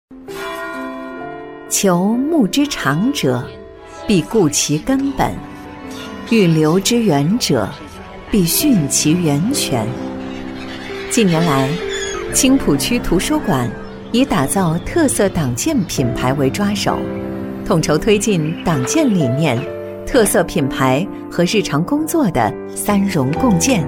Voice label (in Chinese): 女, 党建专题, 大气厚重; 青浦区图书馆; 大气稳重; 成熟知性